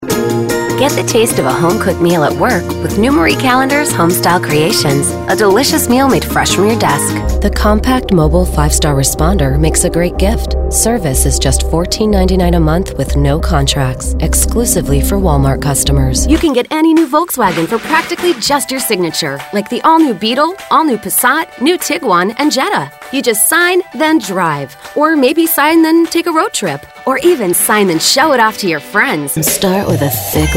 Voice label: commercial